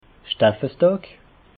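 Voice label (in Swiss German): "staffesdag", Haut Rhin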